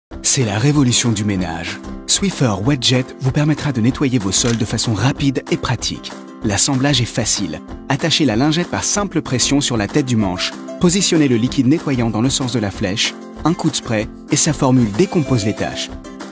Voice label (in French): francais; Swiffer WetJet; LOFT VOICES